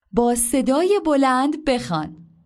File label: speak loudly